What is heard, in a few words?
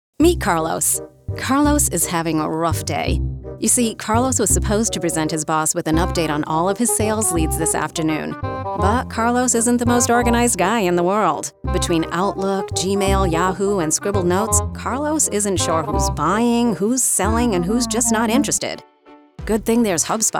EXPLAINER